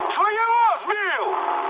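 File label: player killed ally, mood high, Russian; War Thunder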